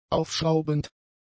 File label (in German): "aufschraubend", German; adjectives